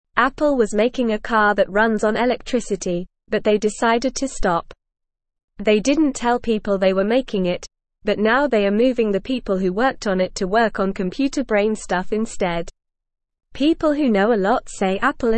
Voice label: English Beginner NORMAL Reading; English Newsroom; Apple Stops Making Electric Cars Focuses on Computer Brains